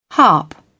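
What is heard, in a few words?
"harp", British English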